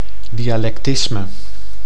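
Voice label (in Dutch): "dialectisme", Dutch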